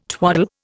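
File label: "twaddle", Indian English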